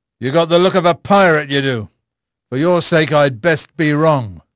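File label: English male; Pirates Of The Caribbean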